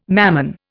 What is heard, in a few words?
"Mammon", Indian